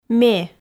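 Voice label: "ме", Komi, female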